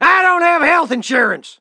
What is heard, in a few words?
texan tap